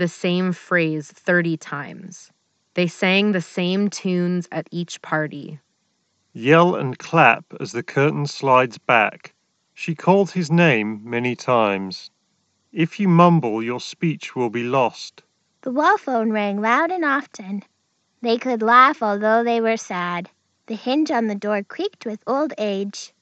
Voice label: Ideal microphone sample; Logitech G435